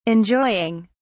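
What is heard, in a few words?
"enjoying", British English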